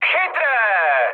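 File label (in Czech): Czech trick, mood high; War Thunder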